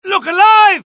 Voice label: alert, dialogue UK; mohaa